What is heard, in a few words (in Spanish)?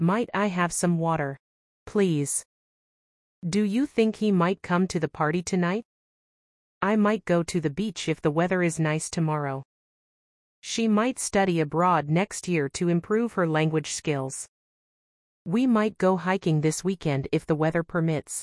ejemplos preguntas might ingles; clase preguntas con might